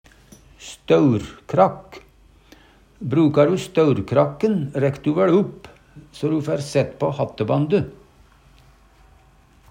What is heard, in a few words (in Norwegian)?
staurkrakk, Numedalsmål